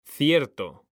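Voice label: "cierto", Spanish, male; mekanta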